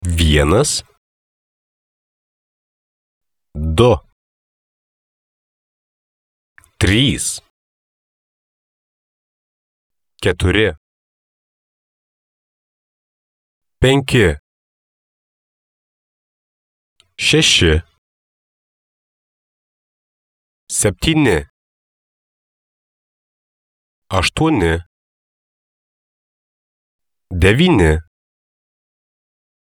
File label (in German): litauisch